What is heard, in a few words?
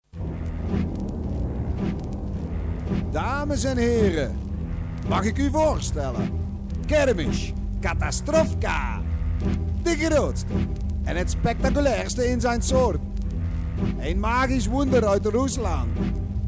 voiceover